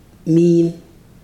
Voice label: "make a face", Ibibio